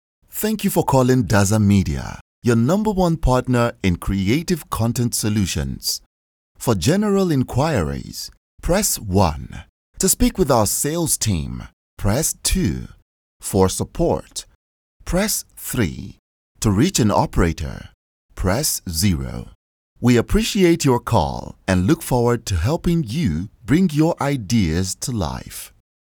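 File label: African English, male, IVR, Nigeria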